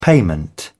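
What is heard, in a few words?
"payment", British English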